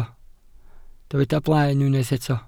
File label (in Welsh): "blaen", Welsh